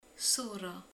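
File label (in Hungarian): "szürke", Hungarian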